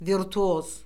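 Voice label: "virtuoz", Azerbaijani; Orfoqrafiya Lüğəti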